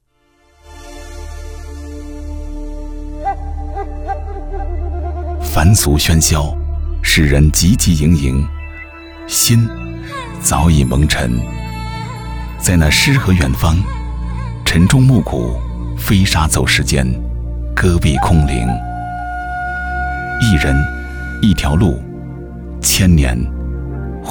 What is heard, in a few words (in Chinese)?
其他 朗诵 散文朗诵, 男国